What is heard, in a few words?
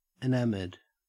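"enamoured", Australian English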